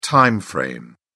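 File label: "time frame", British English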